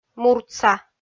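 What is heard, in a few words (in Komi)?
"муртса", Komi, female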